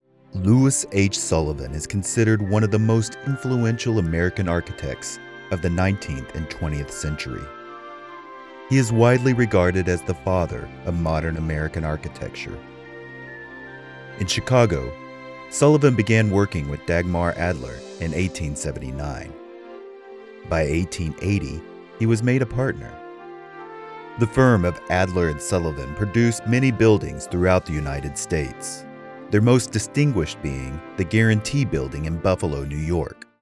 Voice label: Docu

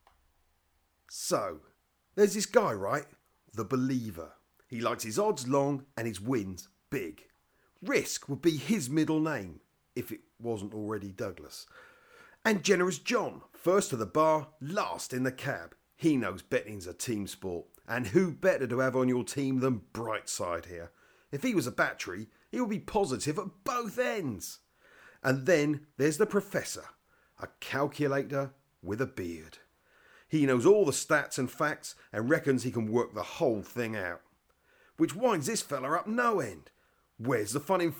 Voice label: advert voice; 1 minute

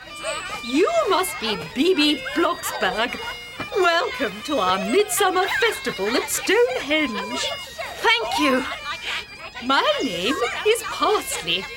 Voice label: Language E-learning Cartoon Character

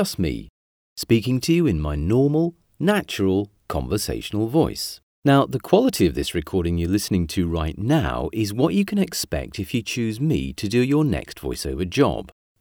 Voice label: Dry Studio

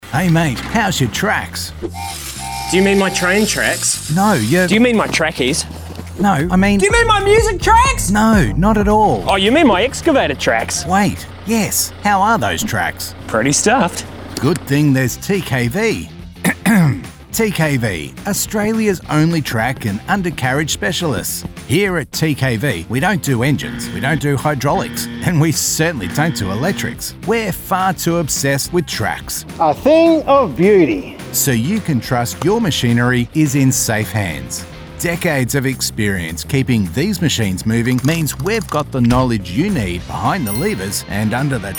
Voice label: promo, cartoon voice over

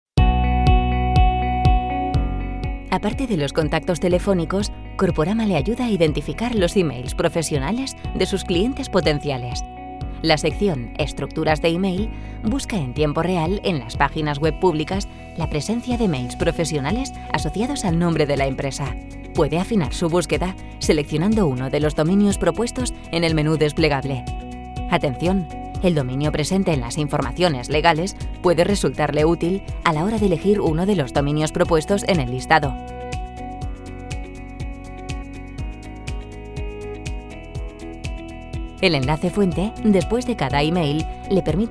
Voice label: Spanish app voiceover

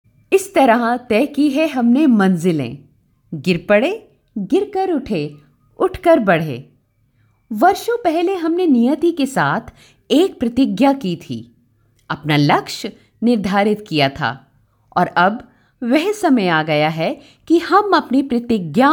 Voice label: Narration Hindi

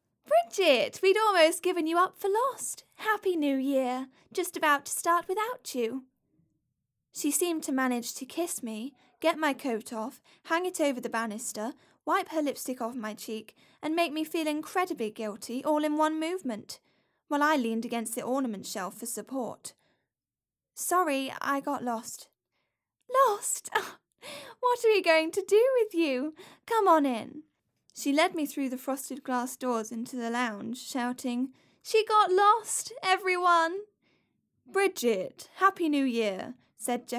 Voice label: Audiobook extract; Bridget Jones

